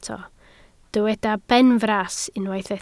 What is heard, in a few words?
"benfras", Welsh